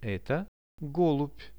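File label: "eto golub" Russian; Это голубь; birds; RUSSIAN VISUAL DICTIONARY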